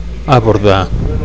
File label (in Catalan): "abordar", Catalan; to tackle; to dock; to berth